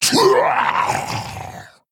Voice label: Male Quilboar Death

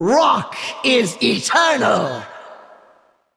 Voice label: Female Valkyr Emote Greetings

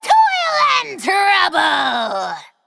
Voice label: Female Undead Attack

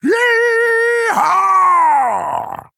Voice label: Male Orc Attack

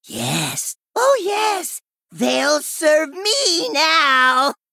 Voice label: Male Gnome

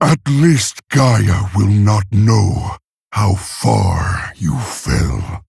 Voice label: Male Orc Idle; Thrall; Garrosh; Mission8 Idle

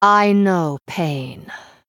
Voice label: Female Draenei Hero Power; Shadow Priest Xyrella

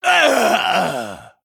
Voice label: Male Human Death

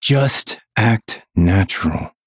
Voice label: Male Human Play

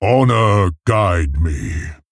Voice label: Male Tauren

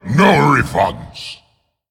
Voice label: Male Demon Attack

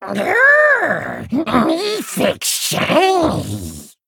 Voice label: Male Kobold

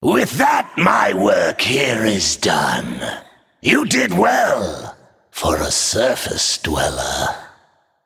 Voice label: Male Naga Bark

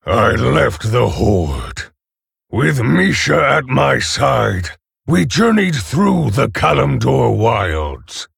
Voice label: Male Orc Ogre

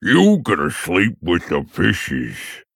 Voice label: Male Tuskarr Play

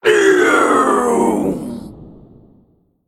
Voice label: Male Mech Death

